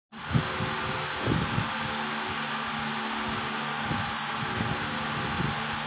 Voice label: Voice Note